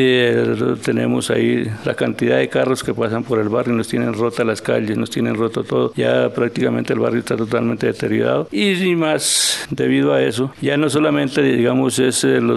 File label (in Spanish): entrevista daño vial; reporteria